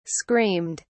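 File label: "screamed", British English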